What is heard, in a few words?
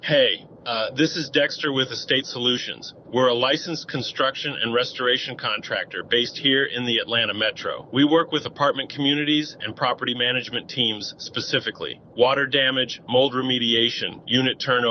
voicemail test